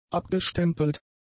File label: "abgestempelt", German; adjectives